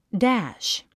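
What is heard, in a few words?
"dash", English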